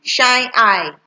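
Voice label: "shine eye", Nigerian Pidgin, female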